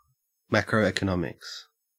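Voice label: "macroeconomics", Australian English; pronunciations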